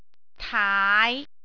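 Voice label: "taai5", Cantonese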